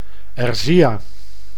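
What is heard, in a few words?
"Erzya", Dutch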